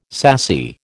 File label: "sassy", American